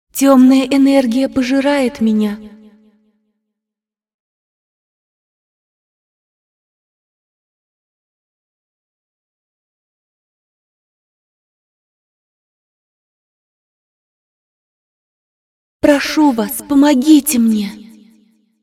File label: cinematic voice, ru; lineage2